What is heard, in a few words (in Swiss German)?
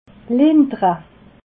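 "lindere", Alsatian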